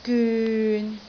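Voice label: "gyun6", Cantonese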